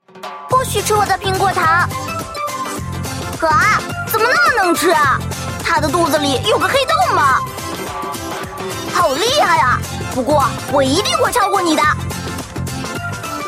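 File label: female shota loli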